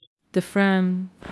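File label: Voice Acting Spanish Female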